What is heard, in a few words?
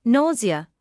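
"nausea", British English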